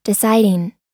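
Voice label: "deciding", US English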